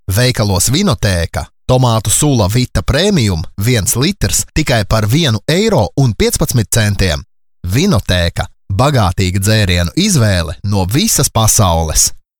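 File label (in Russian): голоса, Latvian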